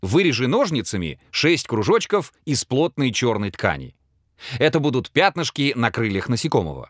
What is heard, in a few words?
narr